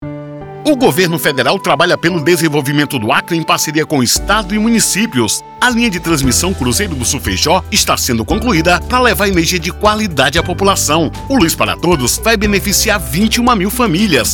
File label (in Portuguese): spot; fenobr regionais